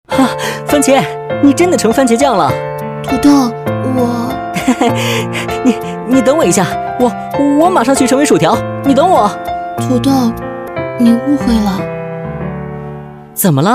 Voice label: 男, 少年; 抖音摆渡厨房

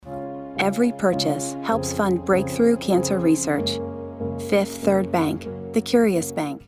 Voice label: 外籍英女 广告 温和抒情

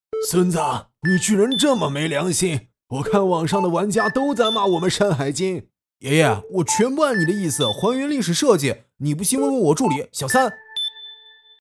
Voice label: 角色 爷爷 孙子; 山海经

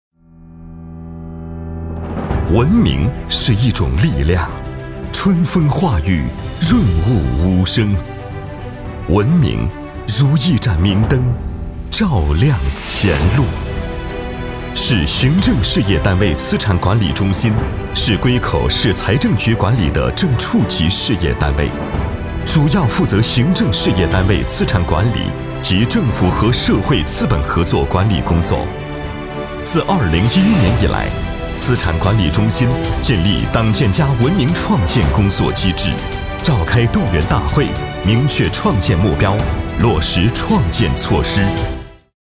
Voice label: 男 行政事业单位资产管理中心

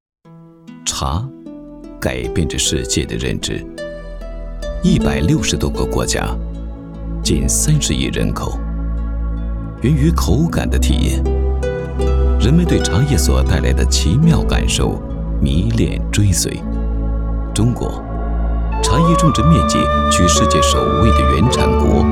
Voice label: 男 纪录片 茶的世界

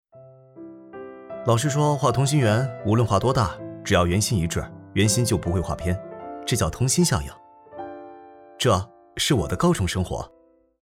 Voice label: 男 旁白 高中生

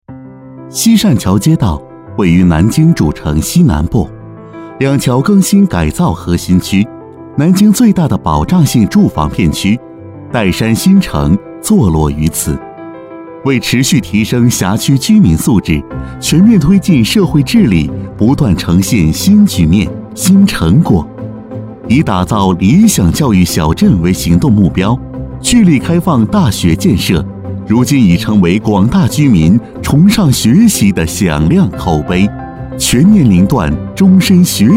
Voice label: 男 独白 清新自然

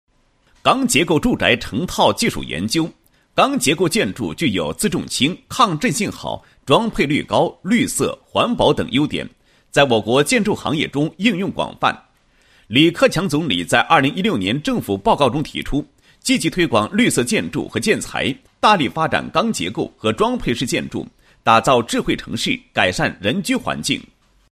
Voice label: 男, 项目解说; 钢结构住宅成套技术

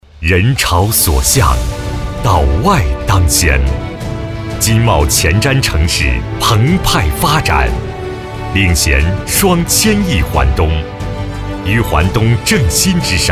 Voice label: 男 房地产宣传; 金茂悦-·云湾

